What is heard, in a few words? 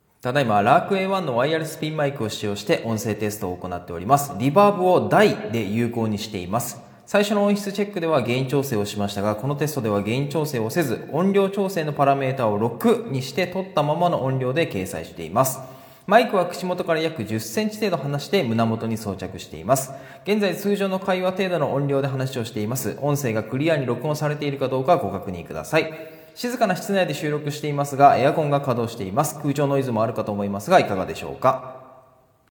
Reverb Big; Hollyland Lark A1